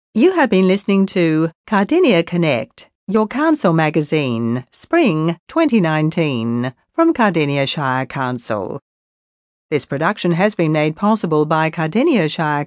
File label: closing announcement